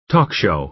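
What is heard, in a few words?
"talk show", American English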